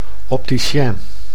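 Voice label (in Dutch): "opticien", Dutch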